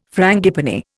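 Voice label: "frangipani", Indian English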